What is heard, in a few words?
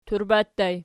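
"turba" ergative plural, Archi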